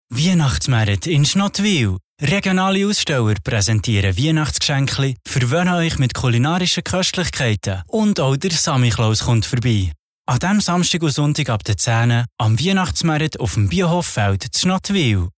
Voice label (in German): Werbung CH BE